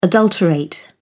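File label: "adulterate", British English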